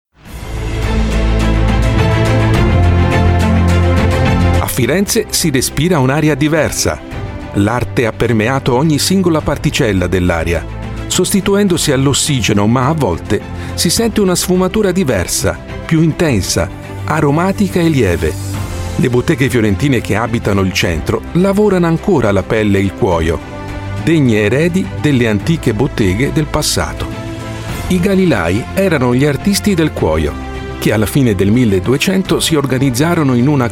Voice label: italian voice over male documentary